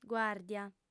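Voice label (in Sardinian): "guàrdia", Sardinian, female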